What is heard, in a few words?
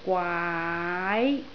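"gwaai2", Cantonese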